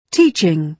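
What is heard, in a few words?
"teaching", British English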